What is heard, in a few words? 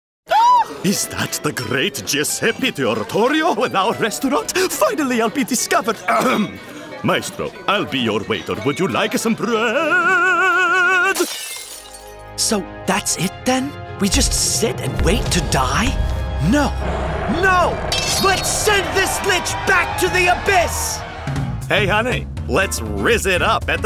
Animation